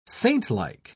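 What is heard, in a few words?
"saintlike", British English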